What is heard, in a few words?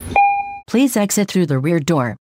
bus stop requested, nyc